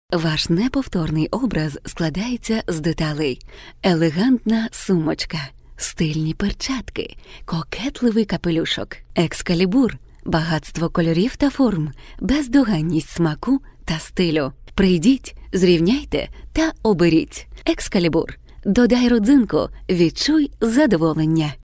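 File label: Ukrainian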